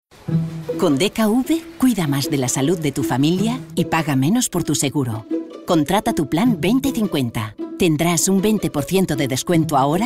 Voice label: female spanish insurance comercial; ideasonora